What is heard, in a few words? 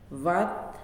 "Stone", Nalik